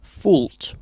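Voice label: "fault", British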